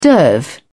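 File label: "derv", British English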